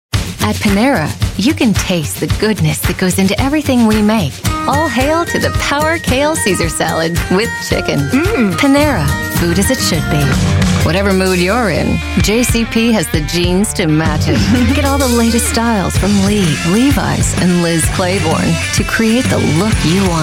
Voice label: Commercial, Female Voice Over